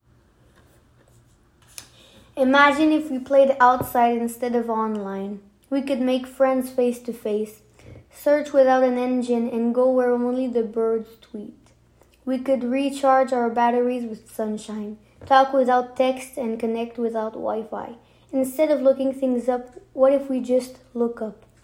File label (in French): Anglais